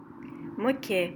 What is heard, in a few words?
"Moquais", French